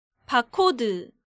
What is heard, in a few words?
"Bakod", Korean